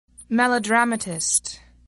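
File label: "melodramatist", English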